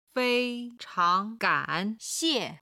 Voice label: "fei1 chang2 gan3 xie4", Chinese